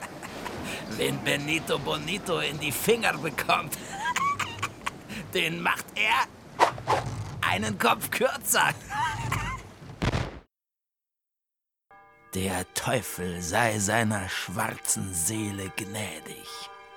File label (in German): Piratenstimme Kinderfunk